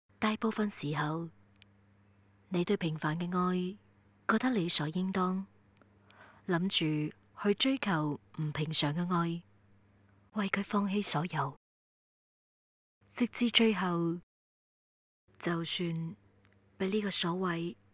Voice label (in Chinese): 女 旁白 爱情